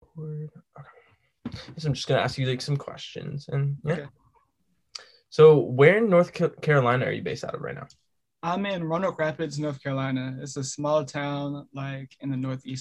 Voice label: Interview; KCSB